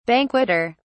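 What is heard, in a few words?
"banqueter", British English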